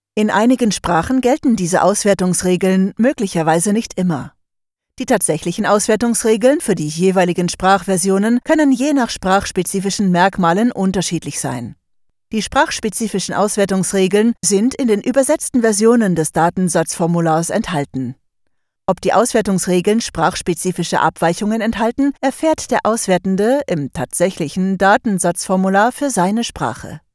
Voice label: german narration

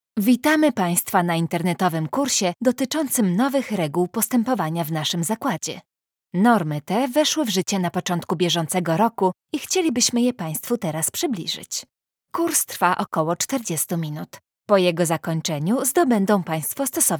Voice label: polish e-learning

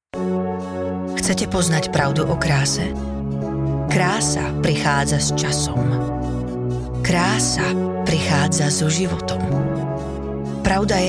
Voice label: slovak commercial

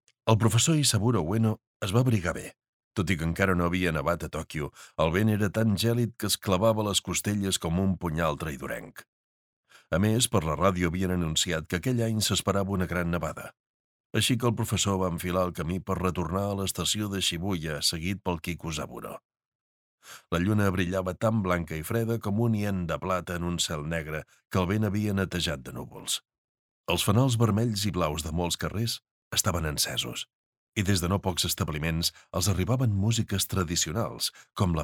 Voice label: catalan audiobooks

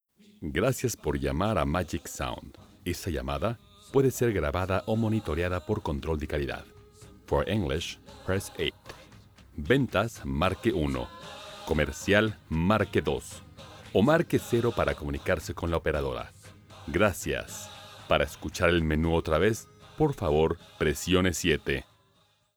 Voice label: spanish latin american ivr

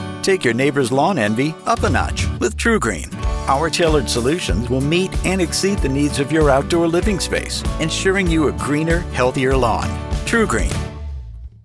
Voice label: english american corporate videos

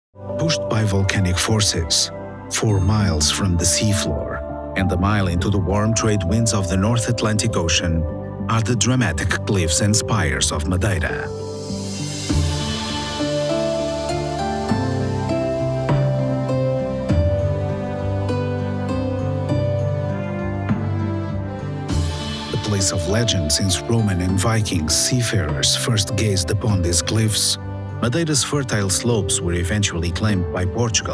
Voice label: english narration

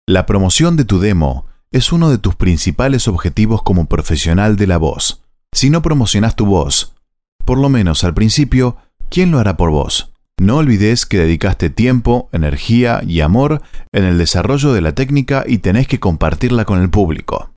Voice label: spanish argentinian e-learning

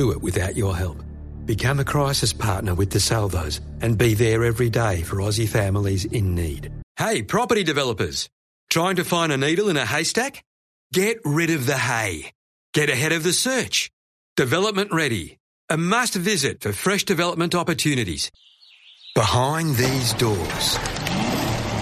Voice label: english australian commercial; Australien; Englisch (Australisch)

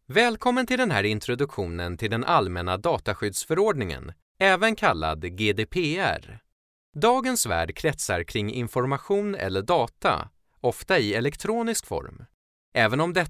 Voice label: swedish e-learning, voice actors; professioneller schwedischer

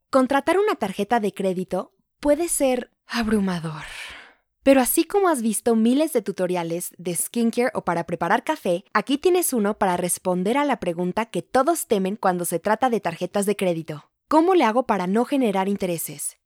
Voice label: spanish latin american podcasts

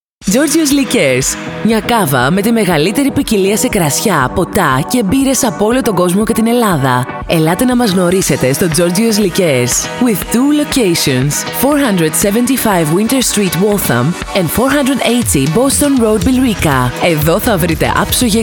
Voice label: greek promos

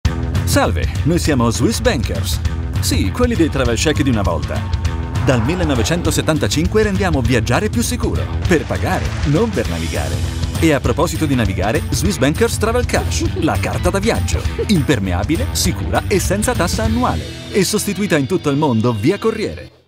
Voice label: italian

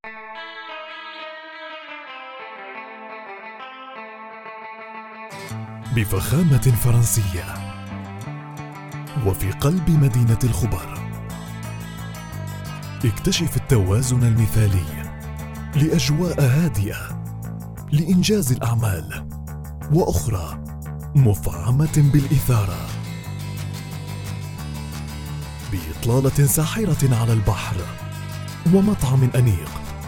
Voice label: arabic commercial; Arabisch; Kanada

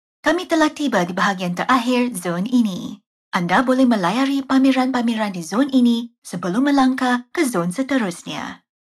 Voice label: malay audio guides

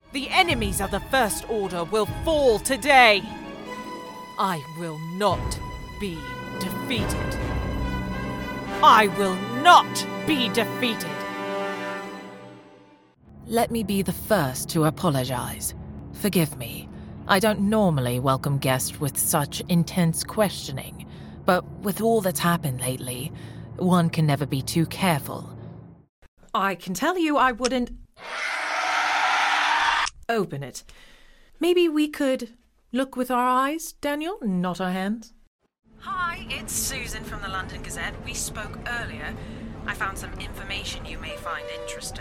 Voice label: english british animation

